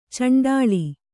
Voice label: "ಚುಂಡಿಲಿ", kannada